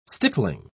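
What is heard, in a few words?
"stippling", English, British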